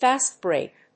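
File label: "fast break", English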